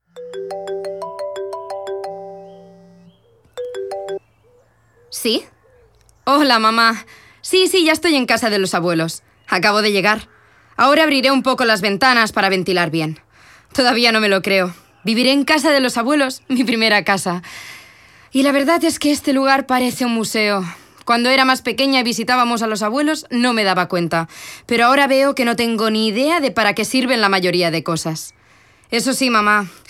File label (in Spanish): audioguia